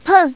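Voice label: "peng", Chinese, female; 女; 欢乐麻将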